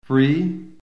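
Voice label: "free", American English; PHONETIC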